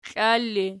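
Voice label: "klal" ergative, Archi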